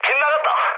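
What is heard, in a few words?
Korean bomb failure mood med; speech; War Thunder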